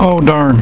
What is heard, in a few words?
slow darn